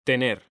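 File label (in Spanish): "tener", Spanish, male; mekanta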